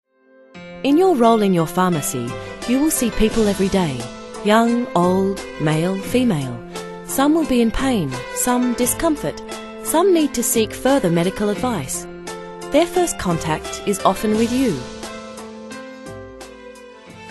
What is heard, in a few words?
eLearning